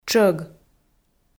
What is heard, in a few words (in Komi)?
"тшӧг", Komi